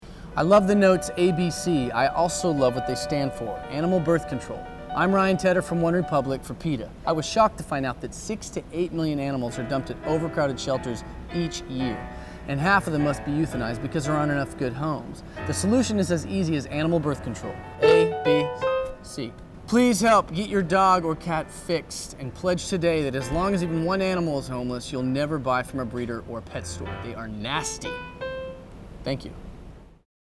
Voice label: radio psa; ryan tedder; peta

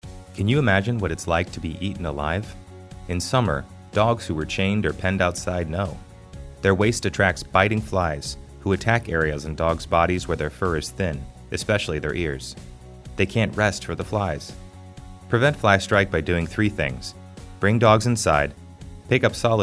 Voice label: flystrike radio psa top 40; peta

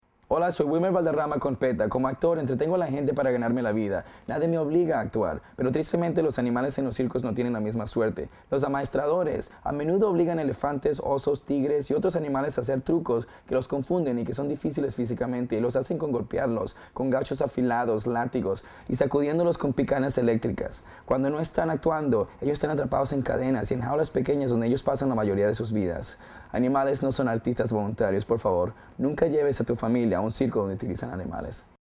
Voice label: radio psa spanish; wilmer valderrama; anti-circus; peta

